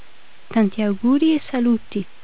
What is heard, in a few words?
italian; Golden Record